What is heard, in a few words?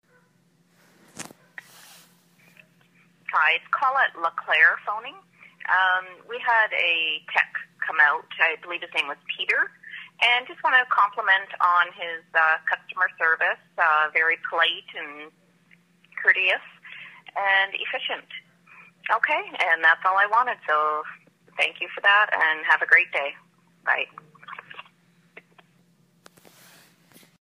Testimonial